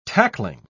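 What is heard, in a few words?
"tackling", British English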